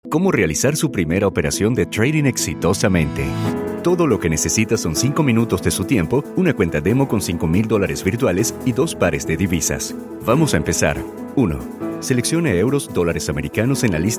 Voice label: Latin Spanish eLearning Corporate